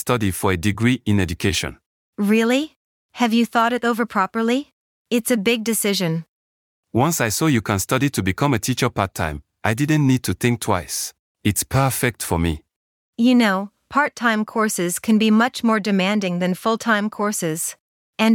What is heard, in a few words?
Language School Dialogue